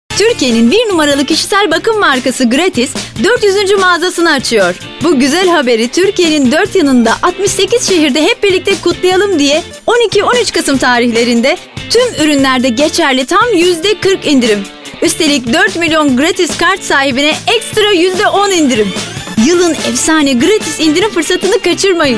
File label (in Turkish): reklam enerjik; gratisl